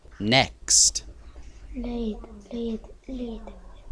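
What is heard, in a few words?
"lead", spoken word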